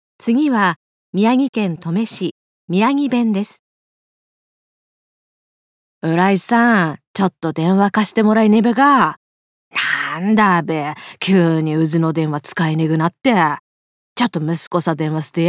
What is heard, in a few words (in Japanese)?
宮城弁; 方言